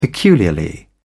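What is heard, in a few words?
"peculiarly", English, British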